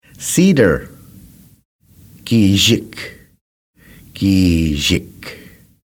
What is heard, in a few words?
Cedar Giizhik Narrated